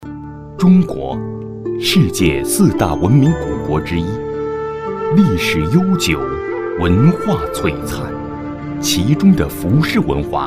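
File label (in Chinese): 【专题】宣传片男-稳重大气; 服饰文化宣传片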